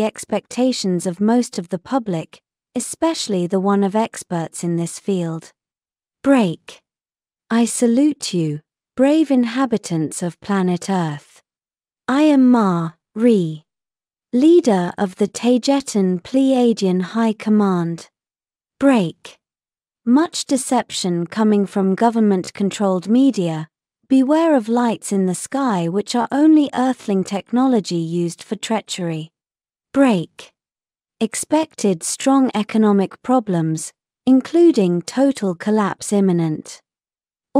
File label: important new message english audio